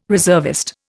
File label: "reservist", Indian